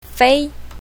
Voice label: "fei1", Mandarin; colors